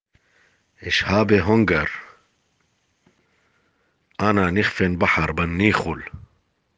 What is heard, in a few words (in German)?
hunger aramaeisch